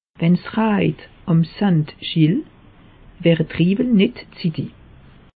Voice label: "gill", alsace; meteo

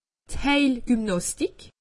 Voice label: "heilgymnastik", alsace; medecine

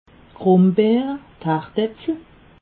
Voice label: "grumbeer", alsace

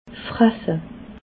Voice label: "frasse", alsace

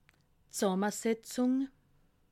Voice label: "zsammesetzung", alsace

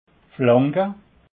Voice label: "flanke", alsace

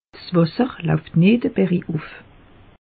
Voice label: "wasser", alsace; meteo

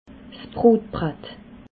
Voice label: "brotbratt", alsace

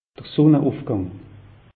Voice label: "sunneufgang", alsace; meteo

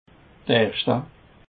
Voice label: "erchte", Alsace

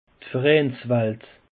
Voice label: "vereinswalt", alsace; peche

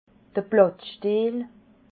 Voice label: "blattstiel", alsace

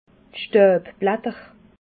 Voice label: "stauibblatter", alsace

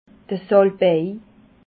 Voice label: "salbei", alsace

